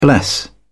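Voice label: "bless", British English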